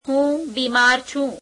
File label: gujarati I am sick